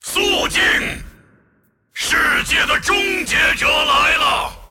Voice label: move, zh; Aatrox